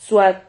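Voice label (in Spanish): "zuad", Spanish syllable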